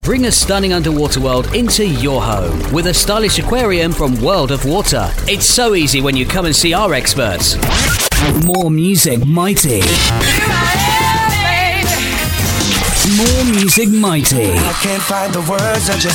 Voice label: voice over

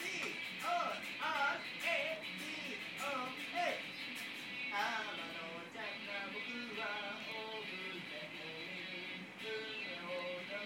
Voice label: weird japanese kid

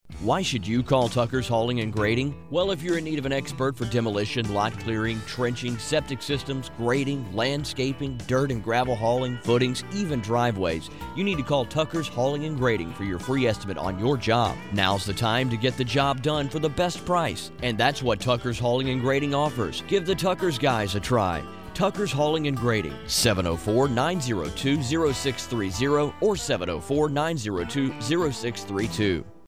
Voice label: radio commercial; Tucker's Hauling

